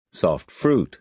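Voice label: "soft fruit", American English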